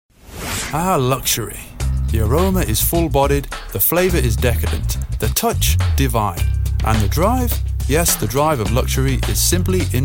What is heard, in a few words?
car commercial radio spot